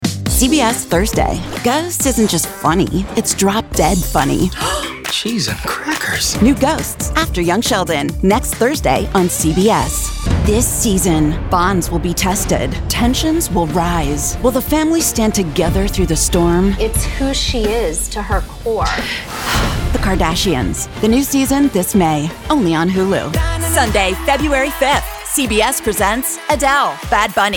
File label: Network TV Promo